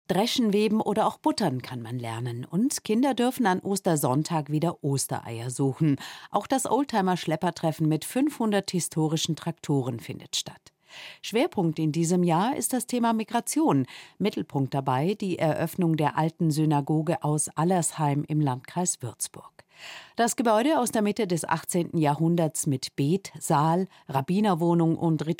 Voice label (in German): Nachrichten; Fraenkisches Freilandmuseum geht in neue Saison